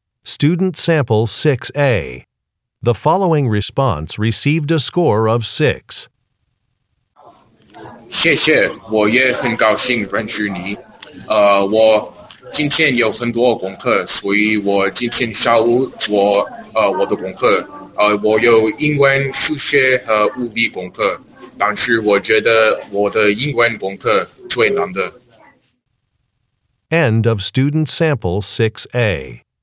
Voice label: chinese conversation; Conversation 6 Sample A

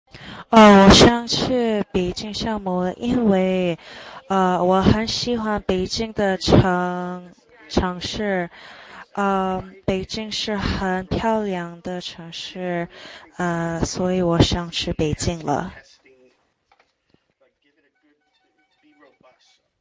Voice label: sample chinese conversation

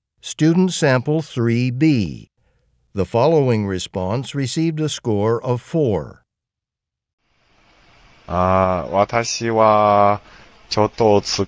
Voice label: japanese conversation; Conversation 3 Sample B (Score of 4)

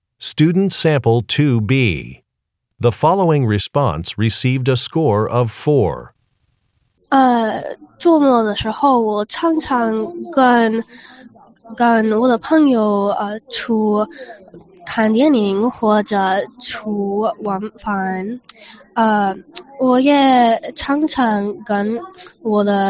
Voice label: chinese conversation

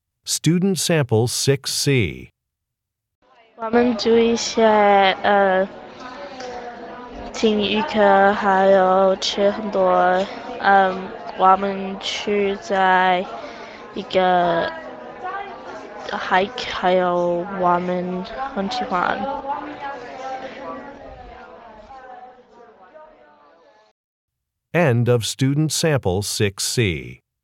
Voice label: chinese conversation

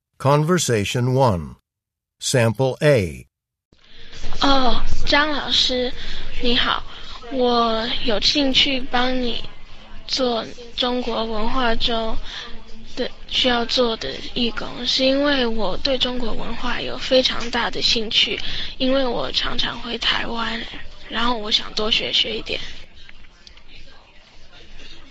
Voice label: Chinese convo; Conversation 1 Sample A (Score of 6)

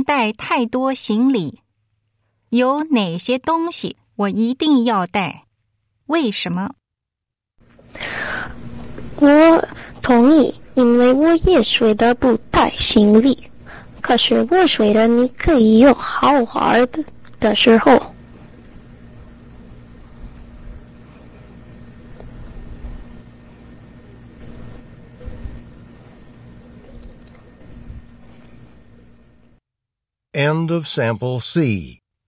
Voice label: chinese conversation

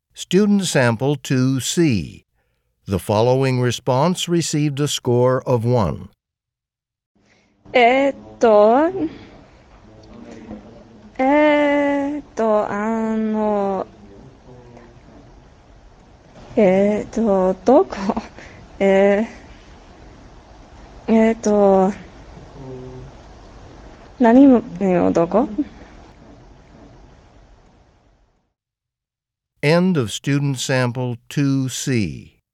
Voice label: japanese conversation; Conversation 2 Sample C (Score of 1)

